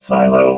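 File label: "silo", robot voice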